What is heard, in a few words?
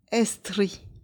"estri", Esperanto